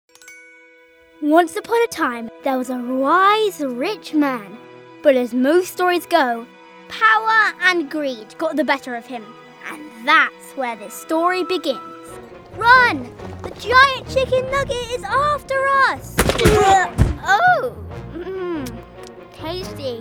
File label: Character